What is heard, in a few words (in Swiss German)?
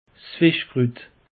"fischkrut", alsace; peche